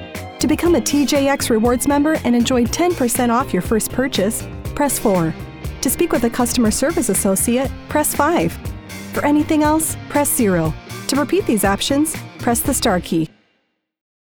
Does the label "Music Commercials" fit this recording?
No